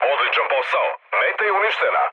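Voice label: Serbian bomb success mood low, speech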